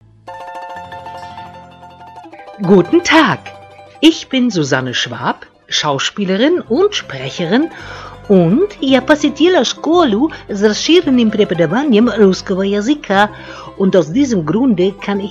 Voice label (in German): Deutsch mit russischem Akzent